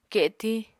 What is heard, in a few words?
"ketiñ", Apatani; Material Culture